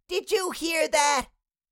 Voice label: Cartoon little child voice did you hear that

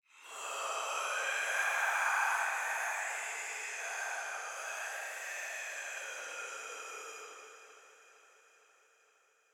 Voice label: Ghost whisper

